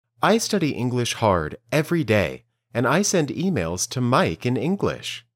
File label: Dictation